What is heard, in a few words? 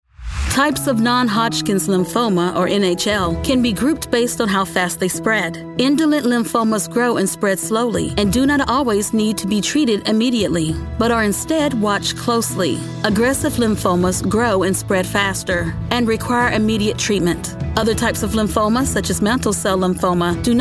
Elearning